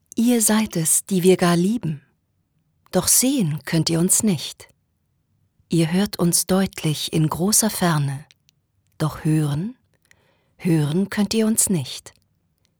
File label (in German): poetisch, frauen